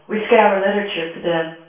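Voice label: female reverberant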